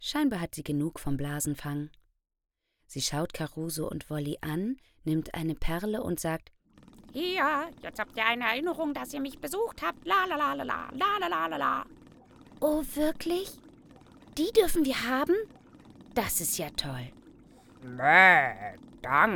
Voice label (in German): Kinderhoerspiel